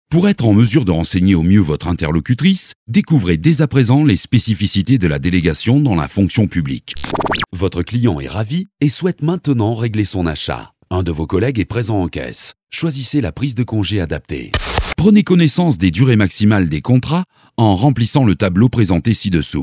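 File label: French, male, E-LEARNING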